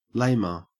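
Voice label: "lamer", Australian English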